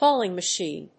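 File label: "hauling machine", English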